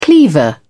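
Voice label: "cleaver", English, uk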